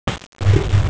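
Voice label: "qie2zi5", Mandarin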